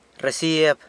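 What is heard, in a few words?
"rresieb", San Lucas Quiaviní Zapotec; bill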